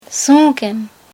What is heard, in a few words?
sigh